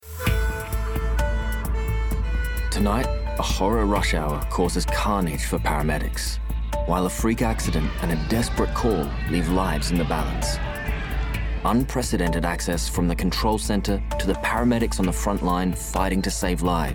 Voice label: Narration and Documentary

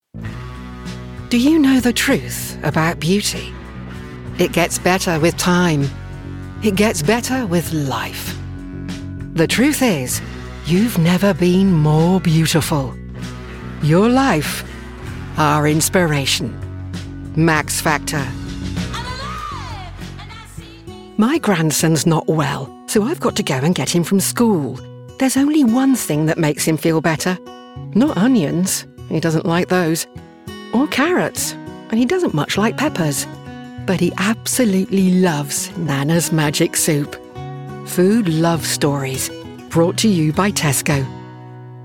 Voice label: Commercial

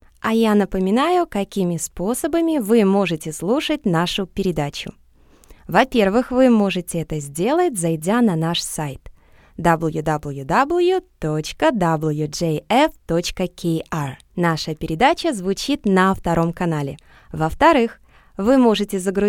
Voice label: Russian

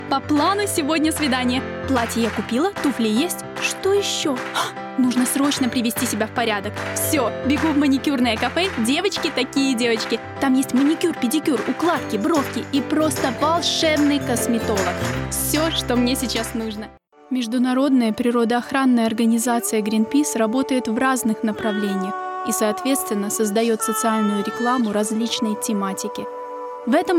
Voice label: Russian

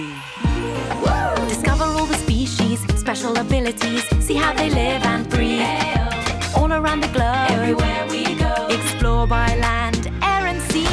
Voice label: Animation, 30s

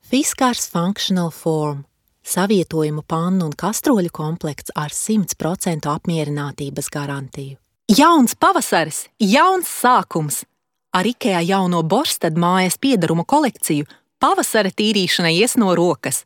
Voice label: Latvian

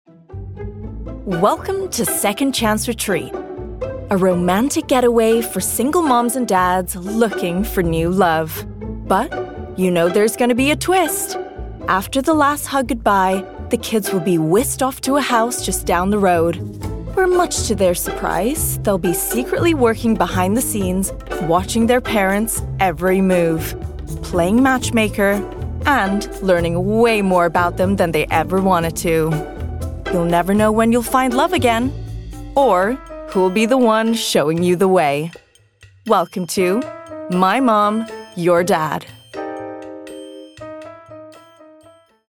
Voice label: Documentary, 30s

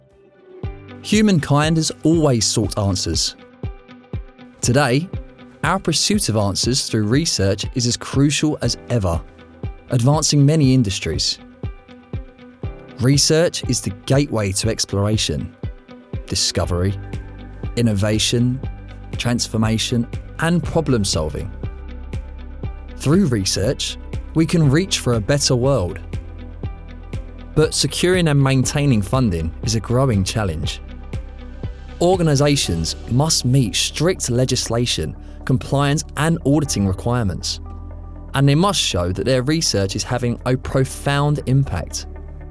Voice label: Corporate

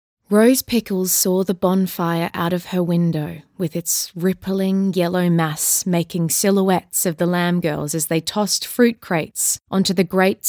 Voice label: Audiobook

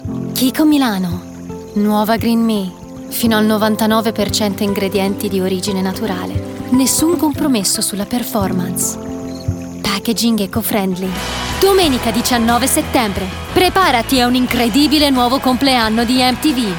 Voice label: Italian

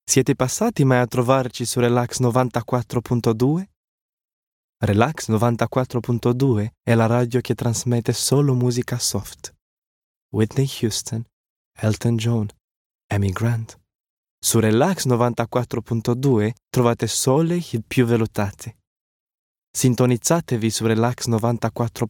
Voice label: Italian

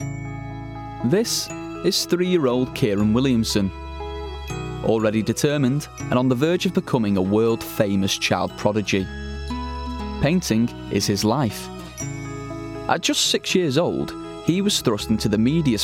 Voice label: Documentary Narration

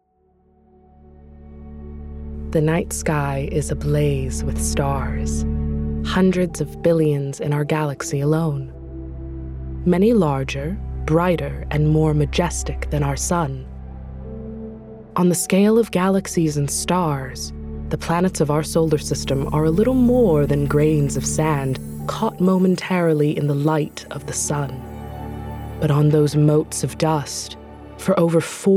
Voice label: DOCUMENTARY

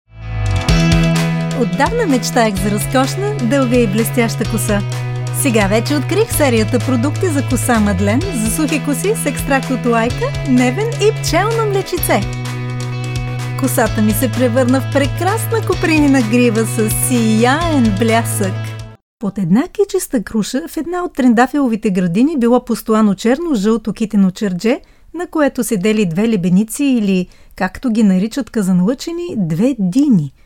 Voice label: Bulgarian

